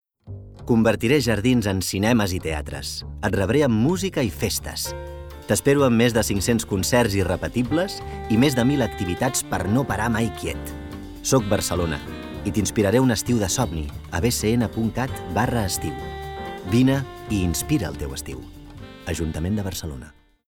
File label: male catalan tv comercial